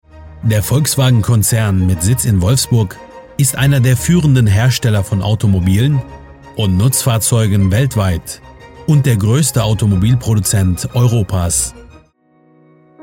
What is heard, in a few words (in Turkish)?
Almanca Erkek, seslendirme